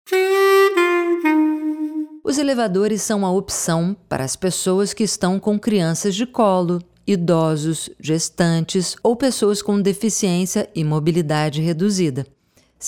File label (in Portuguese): voz educ; viaquatro